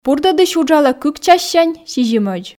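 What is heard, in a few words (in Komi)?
"бурдӧдысь уджалӧ кык чассянь сизимӧдз", Komi, female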